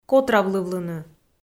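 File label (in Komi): "котравлывлыны", Komi-Zyrian, female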